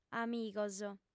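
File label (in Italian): "amigos", lingua sarda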